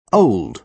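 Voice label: "old", British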